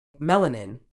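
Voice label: "melanin", British English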